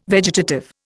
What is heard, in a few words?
"vegetative", Indian